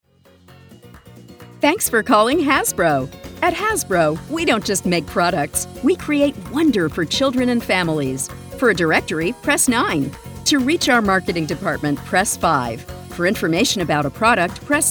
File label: Telephony